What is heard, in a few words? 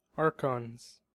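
"archons", US English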